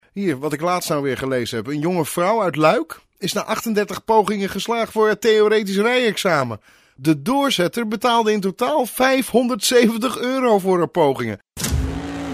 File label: Podcast; Dutch